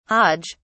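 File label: "hodge", British English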